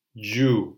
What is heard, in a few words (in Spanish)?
"llu", silabas; lectura